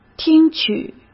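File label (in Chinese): "听取", Mandarin Chinese; hsk; chinese flashcards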